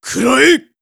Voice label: voice monster